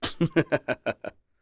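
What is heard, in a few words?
Vox Happy, English; Chase; kingsraid; voices heroes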